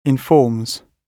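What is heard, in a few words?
"informs", British English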